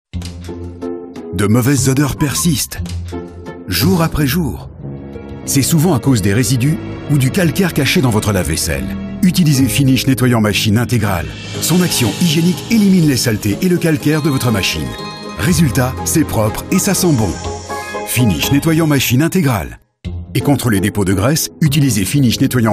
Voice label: tv vo medium obvious seller; finish